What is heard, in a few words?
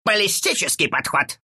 attack, ru